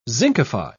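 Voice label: "zincify", British English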